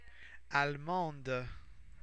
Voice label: "allemande", French